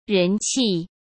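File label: "人气", Mandarin Chinese; chinese flashcards; hsk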